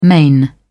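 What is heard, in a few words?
"main", British English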